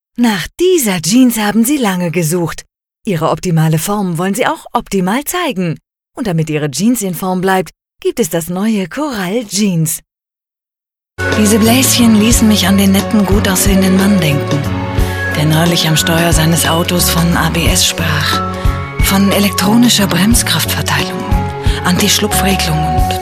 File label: mix GER